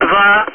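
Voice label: Speech, Russian, air traffic control; Digits; DCS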